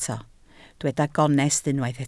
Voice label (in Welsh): "gonest" Welsh